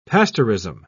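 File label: "pasteurism", British English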